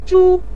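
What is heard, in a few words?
"zhu" neutral tone, Mandarin Chinese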